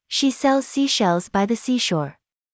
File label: Amber Female